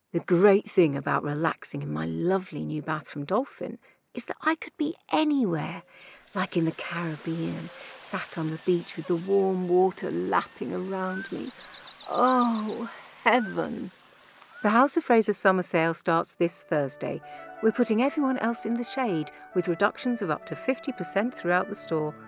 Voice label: Commercial Compilation